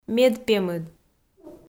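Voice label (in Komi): "медпемыд", Komi, female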